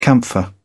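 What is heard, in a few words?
"camphor", British English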